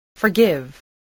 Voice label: "forgive", English voice, spelling

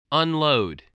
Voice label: "unload", English spelling voice

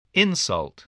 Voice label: "insult", voice spelling

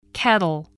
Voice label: "kettle", voice

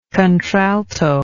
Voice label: "contralto"

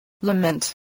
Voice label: "lament", English

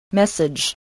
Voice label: "message", voice

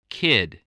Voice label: "kid", voice

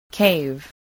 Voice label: "cave", spelling

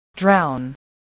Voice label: "drown", English

